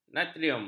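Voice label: "natrium", Malay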